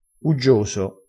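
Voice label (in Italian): "uggioso", dialetto crespinese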